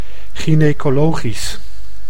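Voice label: "gynecologisch", Dutch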